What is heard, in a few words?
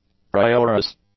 "prioress", English